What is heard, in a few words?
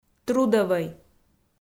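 "трудӧвӧй", Komi, female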